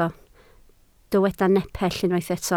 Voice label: "nepell", Welsh